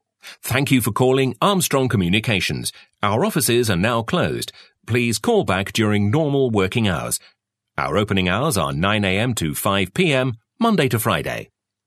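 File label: British English tele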